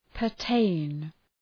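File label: "pertain", English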